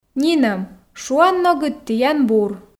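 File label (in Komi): нинӧм шуанногыд тіян бур, Komi, female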